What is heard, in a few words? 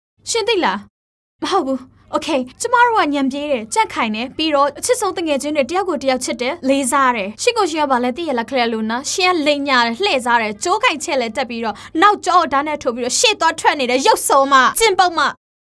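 F Burmese Acting, female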